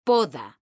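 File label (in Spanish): "PODA" Spanish, female; mekanta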